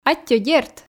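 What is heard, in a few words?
"аттьӧ дерт" Komi, female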